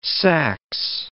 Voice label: "sax", English